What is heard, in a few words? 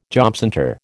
"jobcentre", American